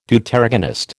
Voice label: "Deuteragonist", American